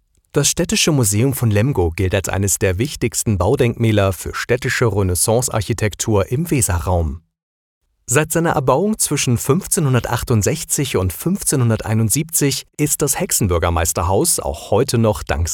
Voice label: audio guide

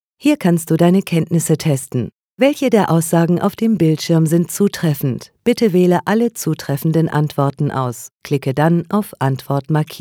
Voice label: elearning; facebook

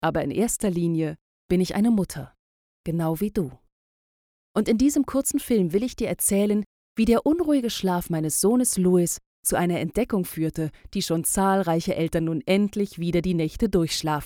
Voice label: audiobook parents counselor

